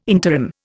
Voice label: "interim", Indian

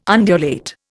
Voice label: "undulate", Indian

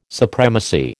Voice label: "supremacy", American

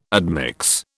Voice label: "admix", British

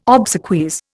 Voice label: "obsequies", Indian

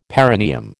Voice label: "perineum", American

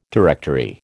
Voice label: "directory", American

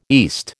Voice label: "east", American

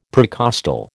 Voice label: "precostal", American

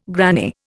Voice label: "granny", Indian

